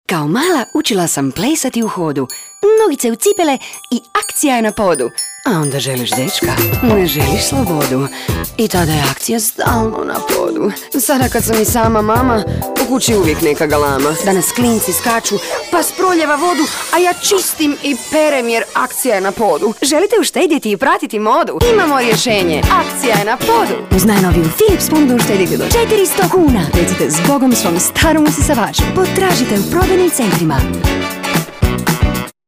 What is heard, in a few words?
commercial, croatian